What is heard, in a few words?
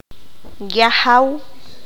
"jefe", Q'anjob'al